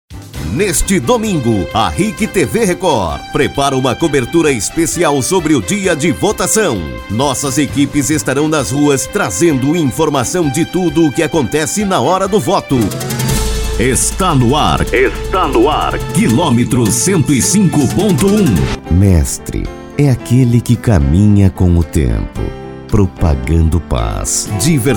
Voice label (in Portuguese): voz padrão, varejo; Studio e Produtora Valentina; Studio HC